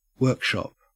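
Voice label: "workshop", Australian English; pronunciations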